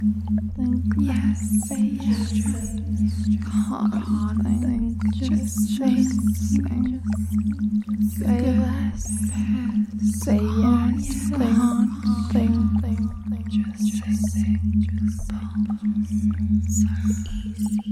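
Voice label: Female, haptics; F4A; Brainfog Loop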